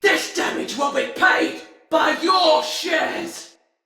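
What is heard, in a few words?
Engine Destroy Banneret, Battle Barks, Male; Sinecure; Styn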